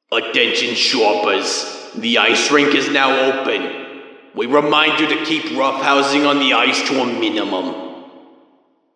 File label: Intercom cap open; Attention shoppers, the ice rink is now open. We remind you to keep roughhousing on the ice to a minimum.; Community announcers